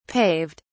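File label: "paved", British English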